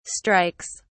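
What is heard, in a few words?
"strikes", British English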